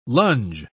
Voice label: "lunge", pronounce

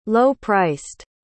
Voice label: "low-priced", pronounce

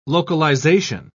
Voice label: "localization", pronounce

